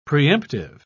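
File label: "preemptive", English, British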